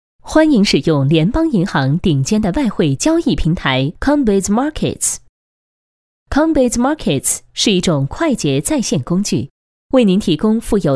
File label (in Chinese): 专题 产品 外汇交易平台 稳重, 女国; 联邦银行外汇交易平台